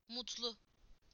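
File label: "happy", Turkish; PictureDictionary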